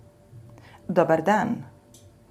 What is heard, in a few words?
croatian hello